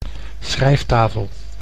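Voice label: "schrijftafel", Dutch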